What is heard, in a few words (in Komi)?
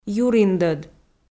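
"юриндӧд" Komi, female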